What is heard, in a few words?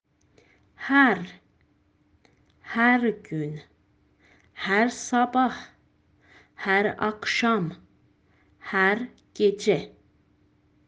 useful practical turkish